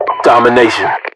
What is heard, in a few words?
US mc title domination, voiceovers, localized english